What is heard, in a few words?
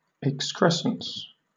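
"excrescence", British English; pronunciations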